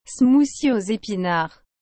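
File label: "smoothie aux epinards", French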